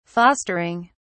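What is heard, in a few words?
"fostering", English, British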